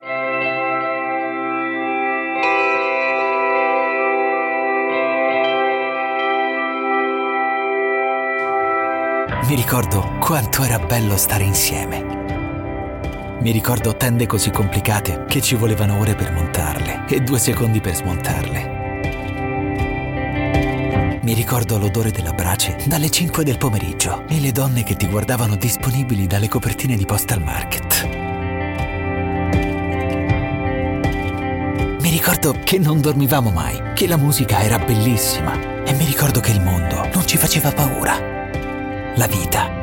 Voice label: italian voice acting reel